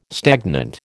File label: "stagnant", American